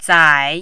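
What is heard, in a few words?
"zai3", mandarin, dipping tone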